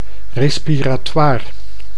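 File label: "respiratoir", Dutch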